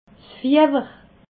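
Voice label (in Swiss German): "fiewer", Alsatian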